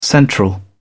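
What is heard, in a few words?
"central", British English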